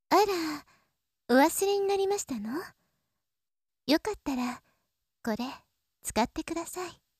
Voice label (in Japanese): oneesan